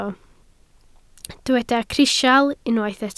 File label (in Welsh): "crisial", Welsh